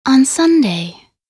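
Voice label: voc en week, English; weekday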